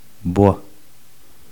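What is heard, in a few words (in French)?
"bois" French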